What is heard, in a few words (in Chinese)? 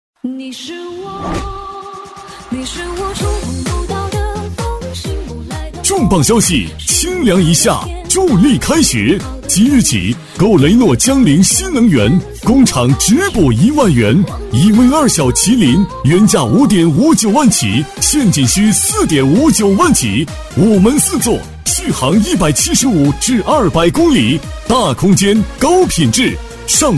激情促销男; 雷诺江铃新能源